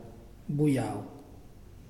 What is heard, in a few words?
Blood, Muyuw; Lae Unitech